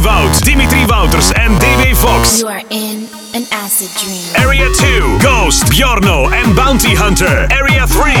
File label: confused commercial